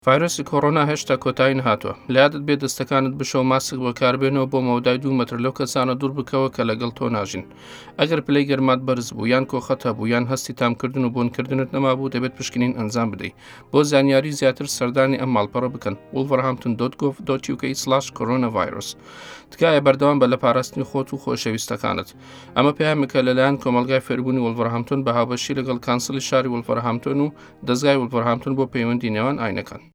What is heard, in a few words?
Kurdish